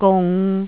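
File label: "gung", Cantonese, tone 3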